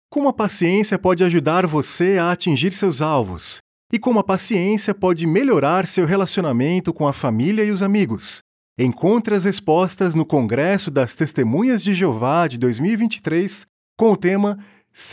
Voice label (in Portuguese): Portugues Anuncio; Congresso; testemunhas de jeova voltam a realizar grandes congressos em 2023